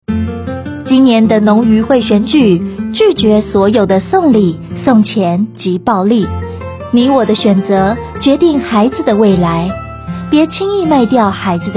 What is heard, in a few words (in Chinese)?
反賄選宣導 30秒廣播帶 國語版; 110年度全國基層農漁會選舉法務部反賄選宣導